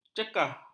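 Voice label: "cekah", Malay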